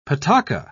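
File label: "pataca", British English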